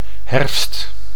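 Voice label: "herfst", Dutch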